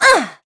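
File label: Vox Landing, voices heroes English; Aselica; kings raid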